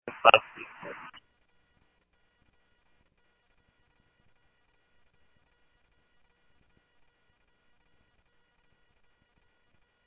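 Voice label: Simulcast Chandler Patrol A, scanner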